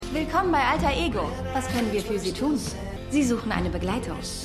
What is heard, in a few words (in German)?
Empfangsdame, Stimmproben